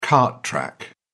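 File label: "cart track", English, British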